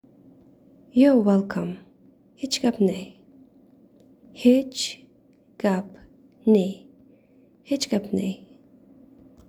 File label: You're welcome Tajik